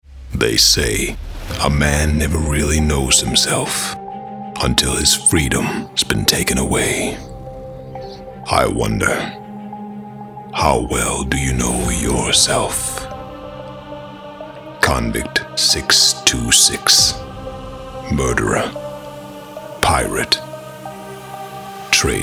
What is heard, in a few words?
Opening Narration; StarCraft II